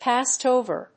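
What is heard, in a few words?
"passed over", English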